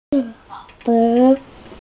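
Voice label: "mian4fen3", Mandarin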